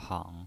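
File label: "hang", Mandarin Chinese, third tone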